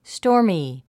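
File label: "stormy", English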